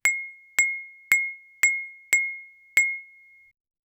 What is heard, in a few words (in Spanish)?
"no hablo ingles", speech